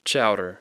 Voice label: "chowder", English